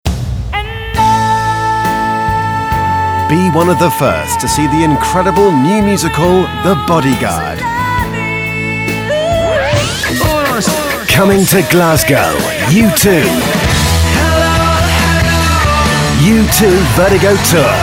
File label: Ads